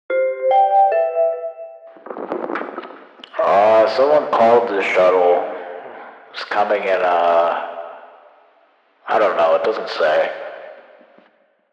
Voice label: shuttle called, announcer; intern

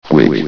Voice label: "quick", vox

